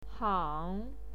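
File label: "hang", Mandarin Chinese pinyin, third tone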